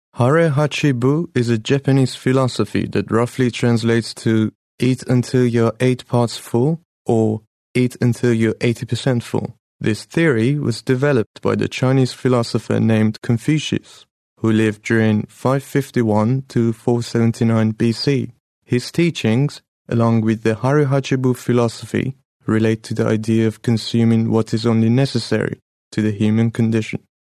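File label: Farsi, male, English Sample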